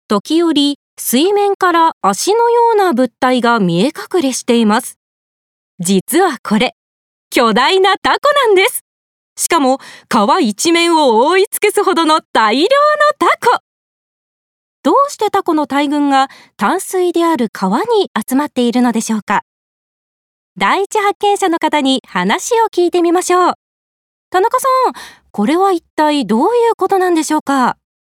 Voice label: 番組明るめ

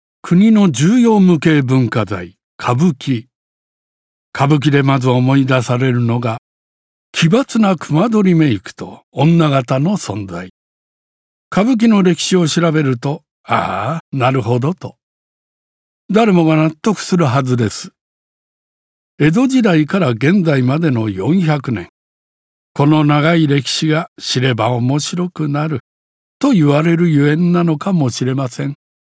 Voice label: 伝統芸能解説ナレ

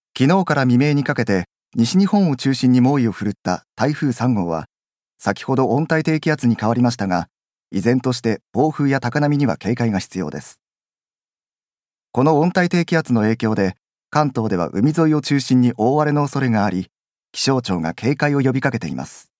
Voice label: ニュース

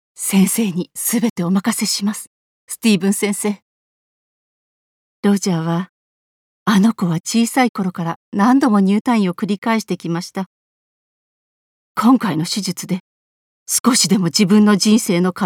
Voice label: 患者母親

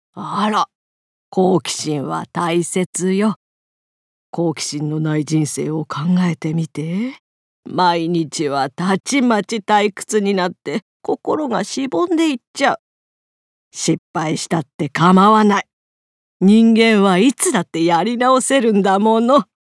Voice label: 老婆70代

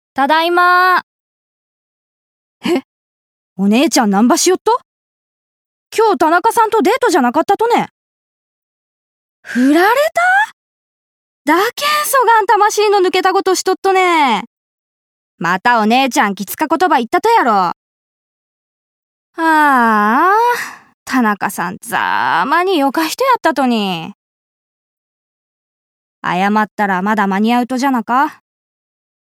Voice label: 五島列島方言

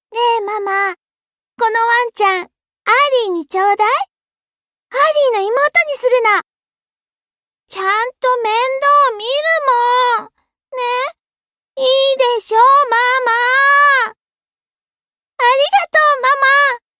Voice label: 少女

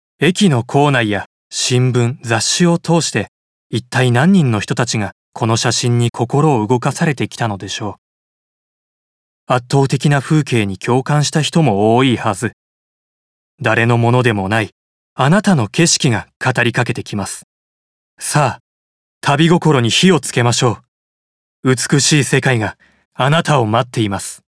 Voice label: アート紹介のような旅行CM

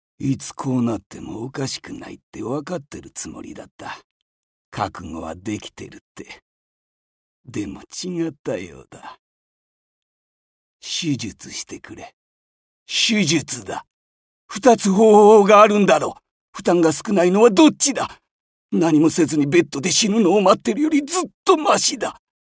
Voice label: 老人

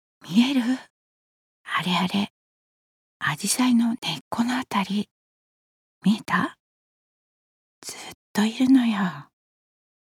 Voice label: ひそひそ話

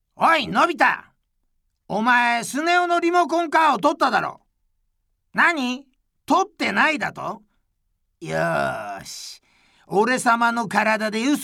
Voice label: アニメ

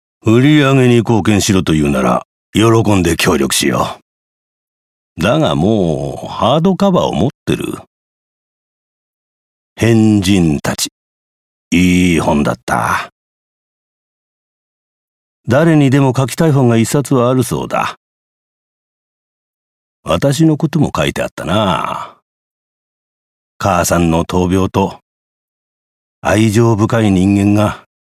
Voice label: 示唆的な会話

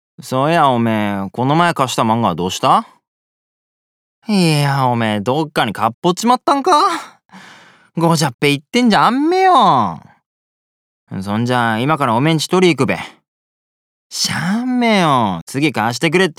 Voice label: 茨城弁

